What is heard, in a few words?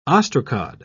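"ostracod", British English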